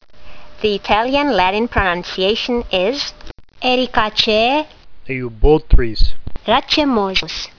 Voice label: latin; Eubotrys racemosus